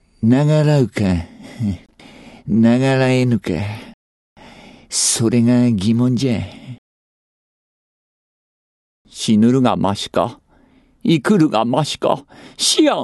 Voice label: male voice